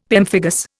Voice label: "pemphigus", Indian; Dictionary

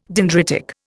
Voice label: "dendritic", Indian

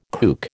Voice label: "kook", American

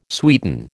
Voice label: "sweeten", American

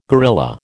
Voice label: "guerrilla", American

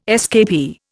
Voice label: "escapee", Indian

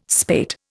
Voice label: "spate", Indian

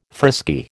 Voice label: "frisky", American

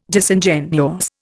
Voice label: "Disingenuous", Indian

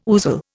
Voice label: "ousel", Indian

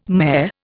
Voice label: "mayor", Indian

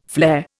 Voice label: "flare", Indian

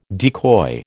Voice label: "decoy", American

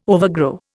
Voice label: "overgrow", Indian

